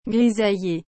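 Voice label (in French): "grisailler", French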